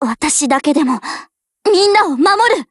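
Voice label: BLACK ACTION, Japanese, Voice; LWMMGMod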